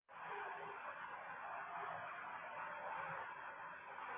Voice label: Voice Note